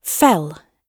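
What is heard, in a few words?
"fell", British English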